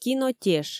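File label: "кинотеш", Komi, female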